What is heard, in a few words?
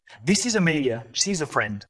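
VoiceActing es, Male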